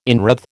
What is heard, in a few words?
"inwreathe", American